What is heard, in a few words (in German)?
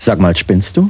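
"spinnst du", German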